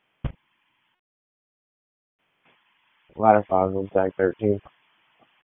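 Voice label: Public Safety Tactical, p25; wake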